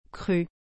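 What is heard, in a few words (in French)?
"cru", French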